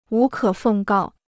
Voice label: "无可奉告", Chinese

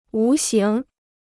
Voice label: "无形", Chinese

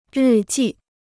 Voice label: "日记", Chinese

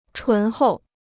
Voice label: "醇厚", Chinese

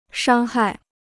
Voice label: "伤害", Chinese

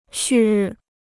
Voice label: "旭日", Chinese

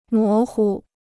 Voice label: "模糊", Chinese

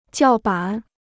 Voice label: "叫板", Chinese

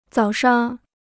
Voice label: "早上", Chinese

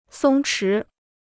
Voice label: "松弛", Chinese

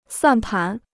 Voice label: "算盘", Chinese

